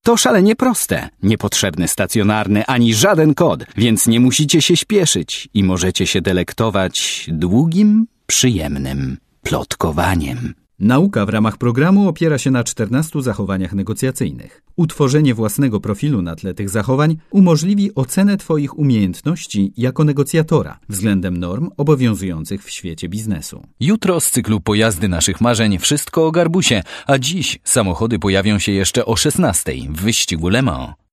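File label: Polish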